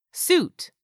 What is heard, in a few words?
"SUIT", english; 3000 words